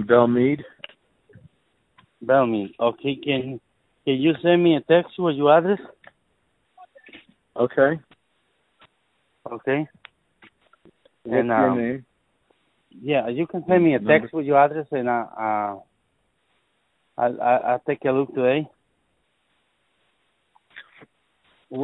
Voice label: call tree service; 1sparkdigital